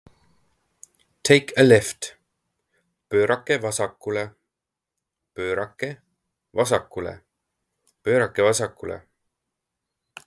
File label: Take a left, Estonian phrasebook; the talking estonian phrasebook